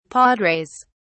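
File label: "padres", British English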